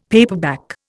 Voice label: "paperback", Indian; Dictionary